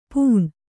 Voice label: "ಪೂಣ್", Kannada